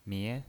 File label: "mie2", pinyin